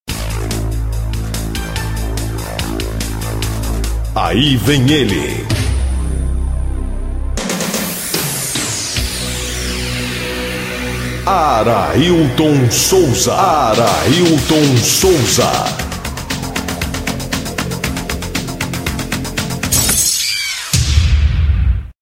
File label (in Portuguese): locutor de rodeio poesia